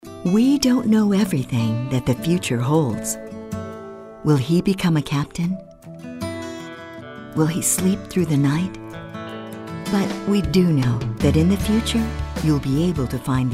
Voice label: caring soft spoken

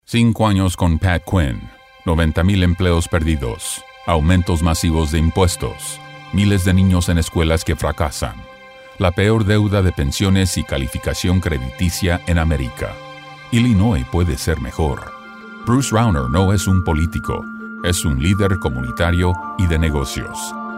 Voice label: Political Spanish

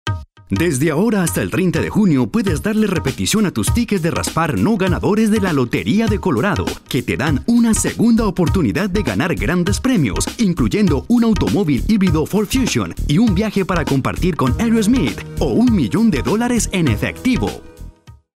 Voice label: announcer

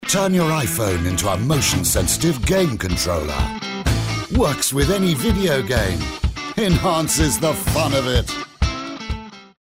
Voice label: upbeat

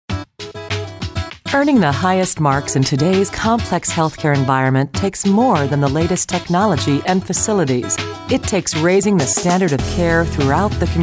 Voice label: friendly

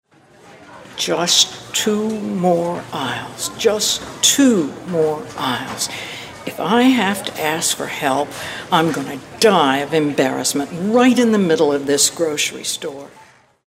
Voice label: mature

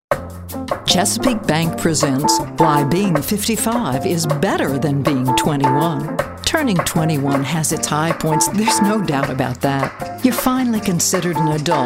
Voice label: humorous

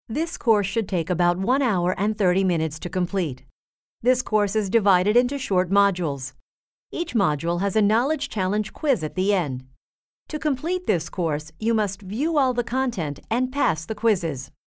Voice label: narrative

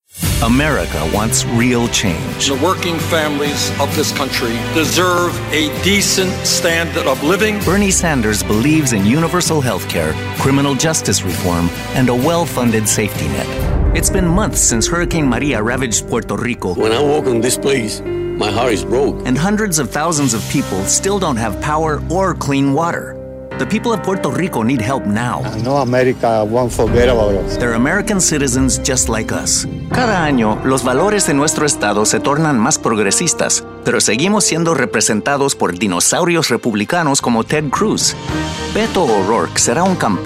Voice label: Political English & Spanish

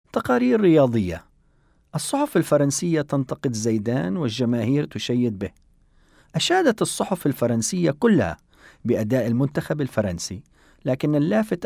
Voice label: Showcase (Arabic)

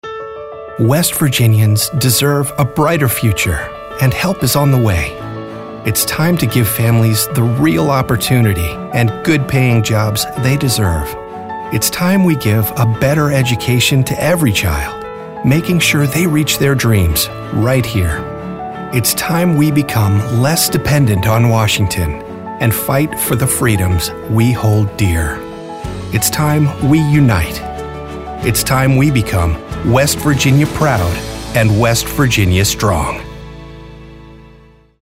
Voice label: Political Positive

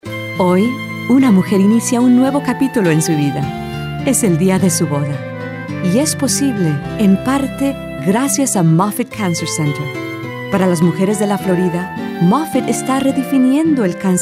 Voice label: Warm

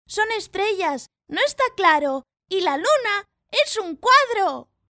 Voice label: Child

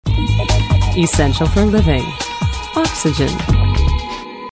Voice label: imaging

